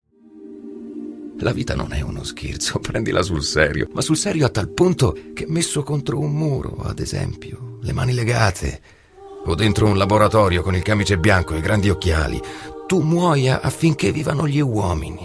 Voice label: Italian voices, Intense Genuine